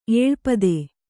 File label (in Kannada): "ಏಳ್ಪದೆ", Kannada